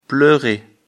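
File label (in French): "pleurer", French; afrikalan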